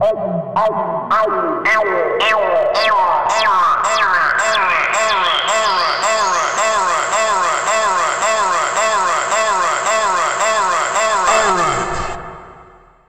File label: Feedback Vox